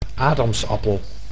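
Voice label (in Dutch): "adamsappel", Dutch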